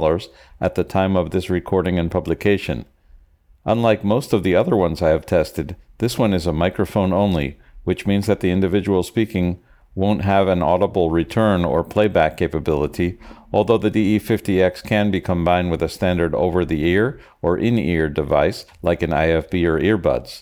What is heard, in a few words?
Samson DE50x noise reduction compression